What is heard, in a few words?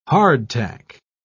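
"hardtack", British English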